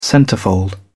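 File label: "centrefold", British English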